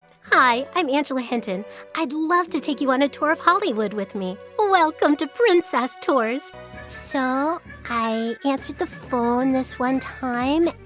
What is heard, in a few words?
voiceover animation voices